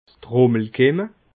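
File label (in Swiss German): "trummelkimme", Alsatian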